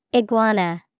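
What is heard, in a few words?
"Iguana", English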